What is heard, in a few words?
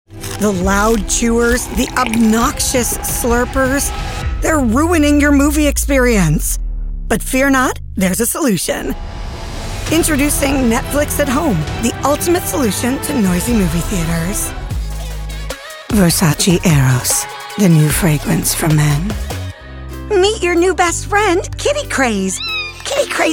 commercial demo